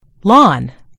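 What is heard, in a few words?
"lawn", American English; gcompris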